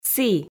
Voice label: "сі", Komi

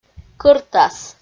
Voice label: "кӧртас" Komi

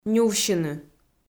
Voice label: "нювсьыны", Komi

